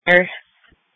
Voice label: Police Tactical Encrypted; sdr